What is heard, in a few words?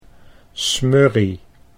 "smurrie", Dutch